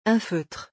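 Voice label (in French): "un feutre", French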